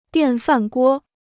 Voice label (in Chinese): "电饭锅", Chinese